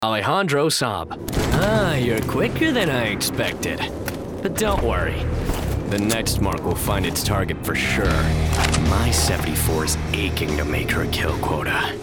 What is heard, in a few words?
animation men